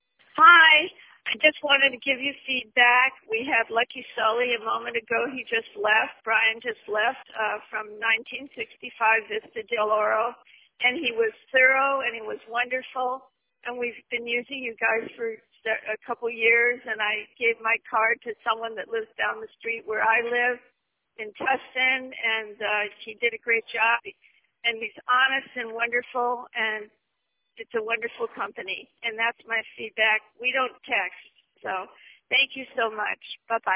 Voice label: voicemail; lucky customer